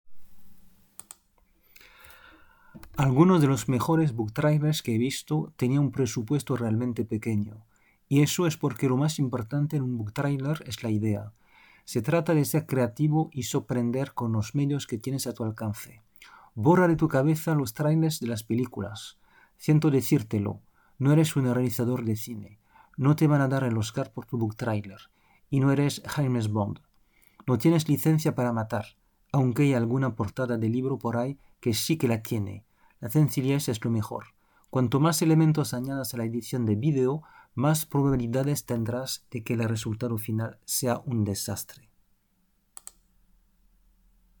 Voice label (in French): Texte espagnol